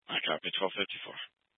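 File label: Dispatch, Scanner audio recording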